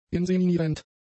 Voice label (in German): "inseminierend", German; adjectives